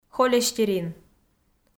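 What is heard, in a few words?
"холестерин", Komi, female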